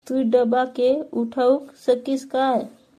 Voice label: Tu dabba ke uthau sakis kaay, Halbi; Can you lift the box?

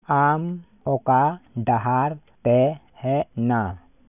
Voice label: Santali; Which way did you come?

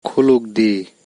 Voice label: "Khulugde", Kokborok

